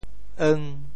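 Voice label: "eung1", Teochew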